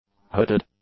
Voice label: "hooded", English; adjectives